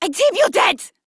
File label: Cover Me Female; en; Modular Corpus; Battle Barks